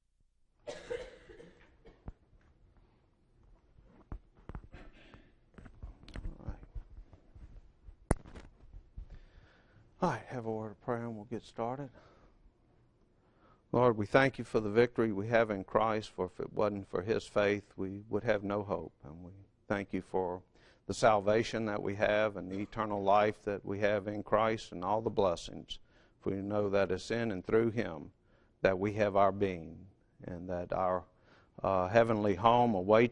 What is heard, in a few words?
English